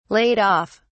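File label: "laid-off", British English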